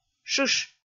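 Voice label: "шыш", Komi, female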